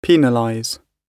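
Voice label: "penalize", British English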